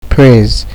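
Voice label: "praise", Nigerian Pidgin, male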